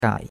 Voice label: "gai3", chinois; Cours-de-Chinois

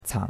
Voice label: "cang3", chinois; Cours-de-Chinois

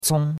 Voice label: "cong1", chinois; Cours de Chinois

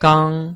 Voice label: "gang1", chinois

